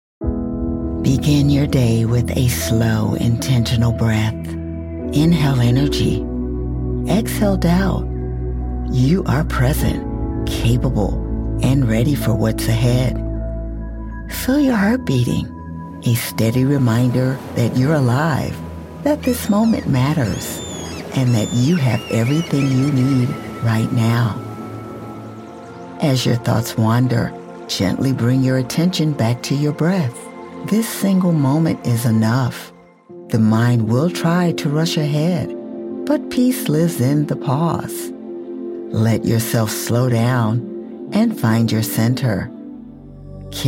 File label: Meditation